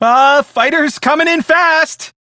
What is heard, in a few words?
Crew Gun Spot Fighter, Male; Dialog; Warframe; Railjack Crew; Perrin Sequence